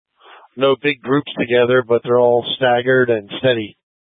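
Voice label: Incident Tac 3, Scanner audio recording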